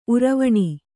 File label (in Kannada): "ಉರುವಣಿ", Kannada